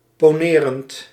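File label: "ponerend", Dutch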